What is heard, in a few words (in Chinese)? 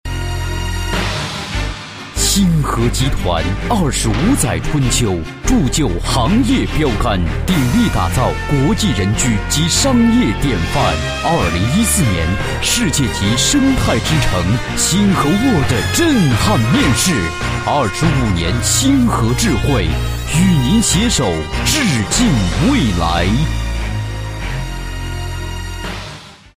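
宣传片_企业_激情; 星河集团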